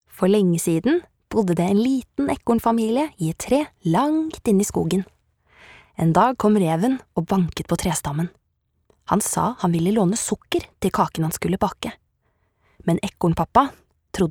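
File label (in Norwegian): fortelling, Norwegian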